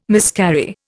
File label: "miscarry", Indian